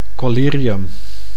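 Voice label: "collyrium", Dutch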